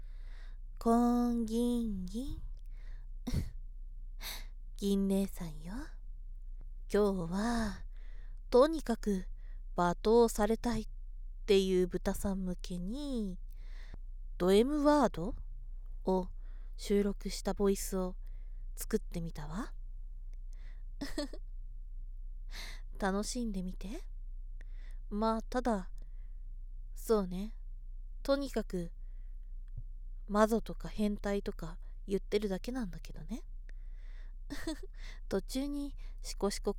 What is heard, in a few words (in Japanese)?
罵倒ボイス; ドМ向け・罵倒・オナサポ; 罵倒されたいマゾ豚さんへ～シコシコ&10カウントでイカせてあげる(ASMR)